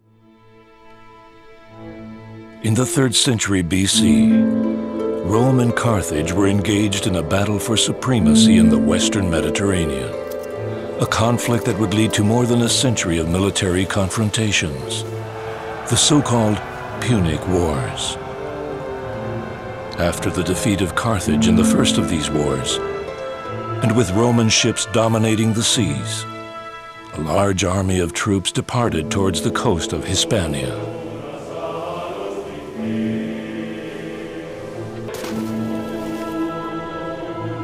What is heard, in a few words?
Narration; Carthago Nova